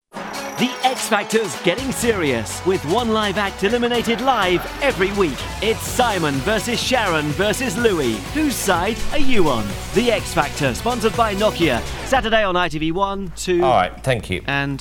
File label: Radio; X Factor